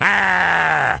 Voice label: Growl; Wario; Mario Kart Double Dash